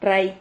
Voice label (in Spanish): "rray", silabas